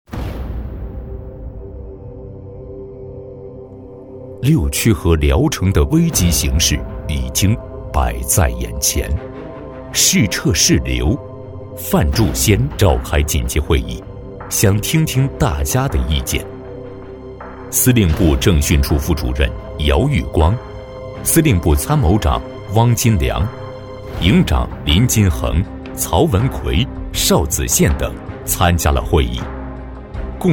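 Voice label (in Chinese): 纪录片旁白; 纪录片聊城保卫战旁白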